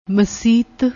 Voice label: "maset", Punjabi